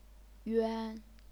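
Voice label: "yuan", chinese pinyin, first tone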